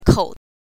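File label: "kou", Mandarin Chinese, neutral tone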